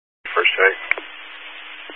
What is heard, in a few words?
Highway Patrol, Phoenix Metro East Area, scanner; DPS